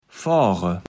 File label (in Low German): "foare", kaevels platt